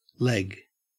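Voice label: "leg", Australian English; pronunciations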